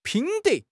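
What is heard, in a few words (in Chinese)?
"品德", Chinese; HSK6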